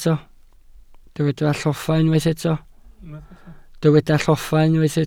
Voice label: "lloffa", Welsh